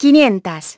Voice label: "five hundreds", feminine; iOS 4